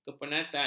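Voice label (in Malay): "kepenatan", Malay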